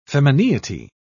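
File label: "femineity", British English, dictionary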